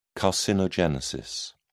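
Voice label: "carcinogenesis", British English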